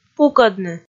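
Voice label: "пукӧдны", Komi, female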